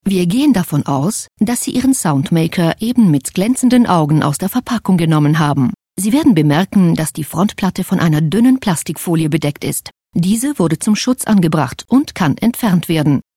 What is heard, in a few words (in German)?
switzerland female filmvertonung sprecher